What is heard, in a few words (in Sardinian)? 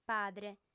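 "padre", female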